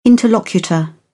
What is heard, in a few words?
"interlocutor", British; English